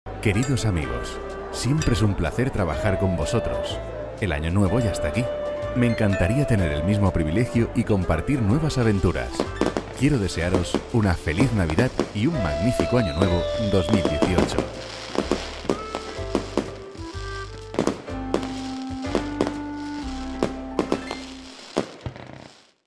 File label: Commercial